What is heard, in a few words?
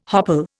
"hopple", Indian